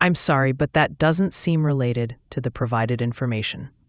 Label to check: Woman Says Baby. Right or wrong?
Wrong